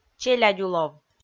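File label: "челядюлов", Komi, female; kpv audio dict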